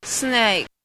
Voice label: drunk female